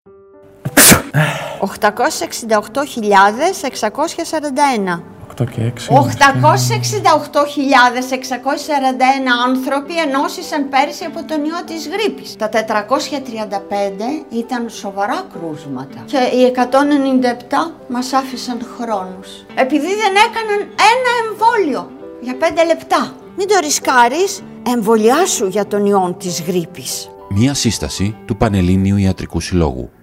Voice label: RADIO SPOT